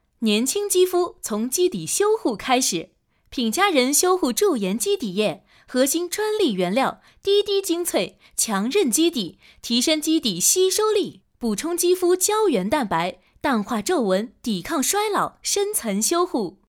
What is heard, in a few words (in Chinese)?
女声广告宣传片